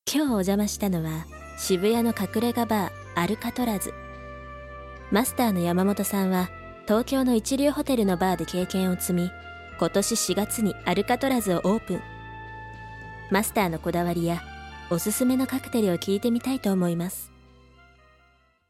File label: narration